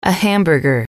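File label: "a hamburger", female, English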